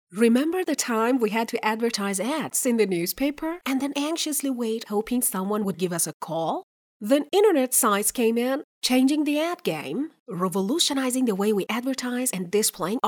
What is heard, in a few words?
narrator